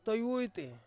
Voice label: "toiwuiti" to tie, Ansus